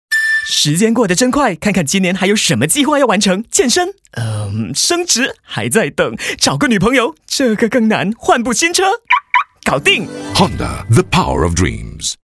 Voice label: Mandarin - Comedic, Dynamic, Speedy, Happy & Sad; Honda

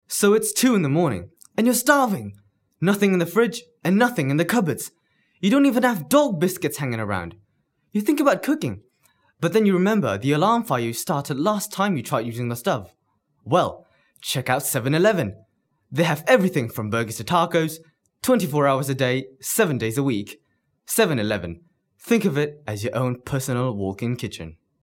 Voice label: Eng

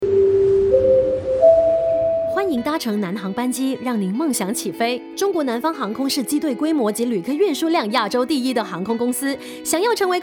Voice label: mandarin Airline VO; China Southern Airline

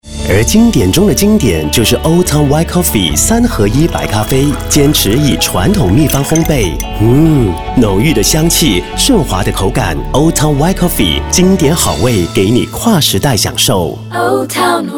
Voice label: Soft

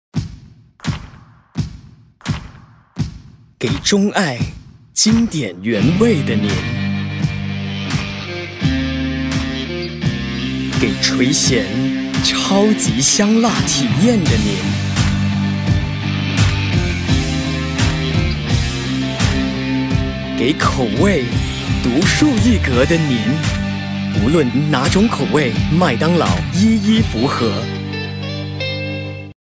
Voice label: Mandarin - Character, Sexy, Cool; McDonald's

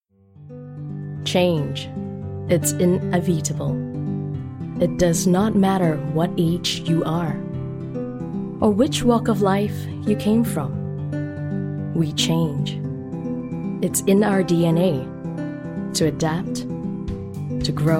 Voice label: ANNOUNCER VO; MontagueBags

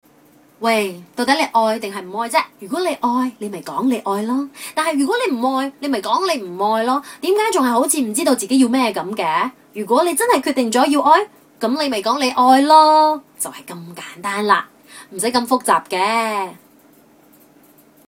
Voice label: cantonese VO

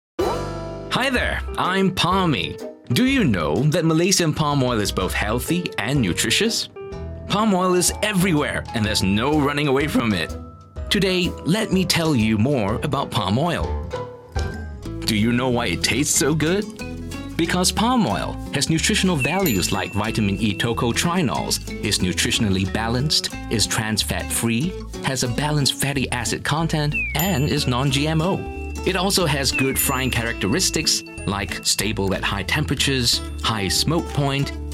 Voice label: Corp Video

